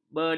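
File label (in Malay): "bedak", Malay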